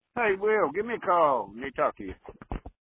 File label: Voicemail